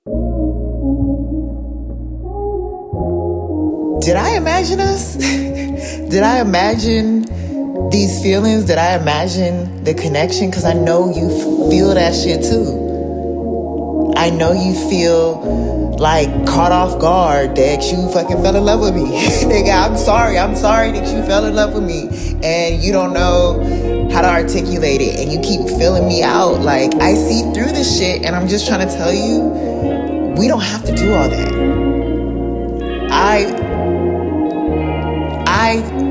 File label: Narration; Issa Raes